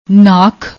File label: "naakh", Punjabi; ਨਾਖ